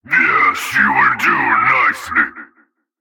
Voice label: Male Alert Line; NPC; Heist Cultist Spotted

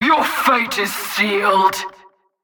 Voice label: Female Alert Line, Cultist Spotted; NPC; Heist

